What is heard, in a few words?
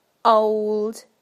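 "old", British English; PictureDictionary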